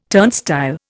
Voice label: "turnstile", Indian